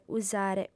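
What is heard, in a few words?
"usare", lingua sarda, female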